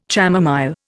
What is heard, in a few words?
"chamomile", Indian